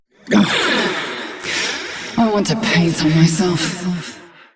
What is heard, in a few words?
Ambient Barks, en